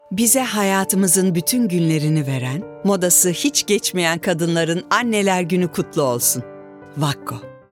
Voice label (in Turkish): duygusal motive